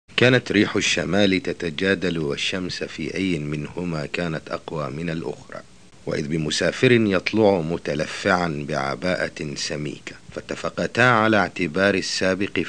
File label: narrative, Arabic